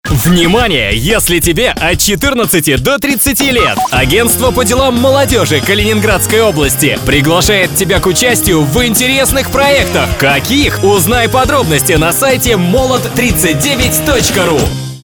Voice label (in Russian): reklama; uchastvuy v molodezhnyh proektah kaliningradskoy oblasti